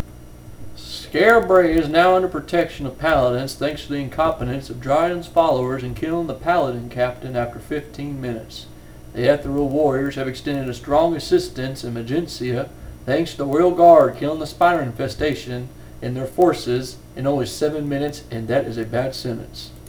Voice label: more voice